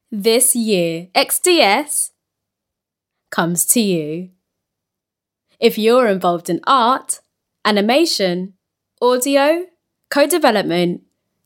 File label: london female voice events